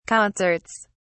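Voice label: "concerts", British English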